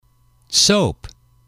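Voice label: "soap", English, male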